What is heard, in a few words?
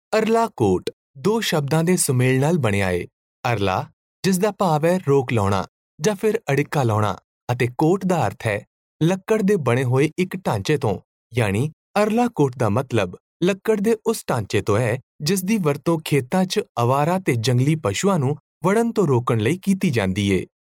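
Punjabi, male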